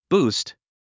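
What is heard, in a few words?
"boost", English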